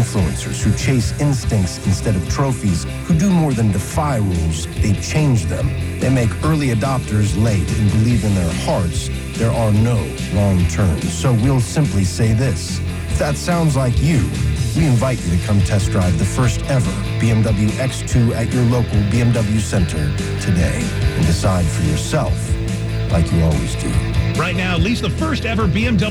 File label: radio spot; SoCalBMW